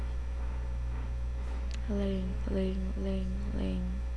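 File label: "ring", spoken word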